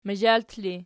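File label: "mazhalt" ergative, Archi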